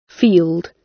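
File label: "field", British English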